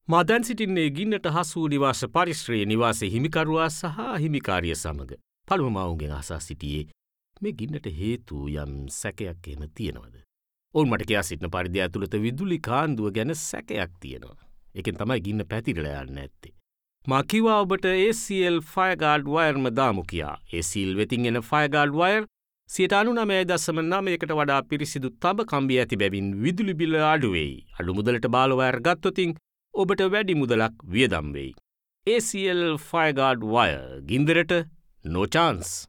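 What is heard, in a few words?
Sinhala Commercial; GoLocalise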